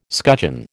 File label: "scutcheon", American